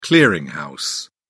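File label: "clearing house", English, uk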